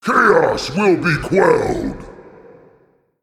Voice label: Male Titan Trigger

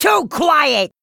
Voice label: Male Goblin Attack

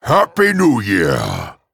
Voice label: Male Dragon HAPPY NEW YEAR

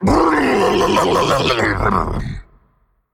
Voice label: Male Monster Death

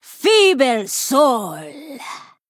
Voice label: Female Troll Attack

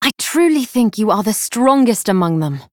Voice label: Female Night Elf Idle

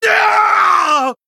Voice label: Male Blood Elf Death

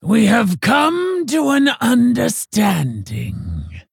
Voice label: Female Orc Victory; Hagatha

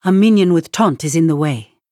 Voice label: ERROR TAUNT

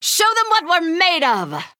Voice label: Female Human Play

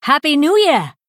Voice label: Female High Elf Happy New Year Lunar

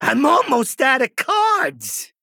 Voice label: Male Goblin Low Cards

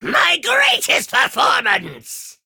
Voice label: Male Imp

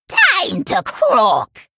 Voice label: Troll Emote Attack

